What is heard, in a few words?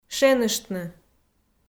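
"шеныштны", Komi, female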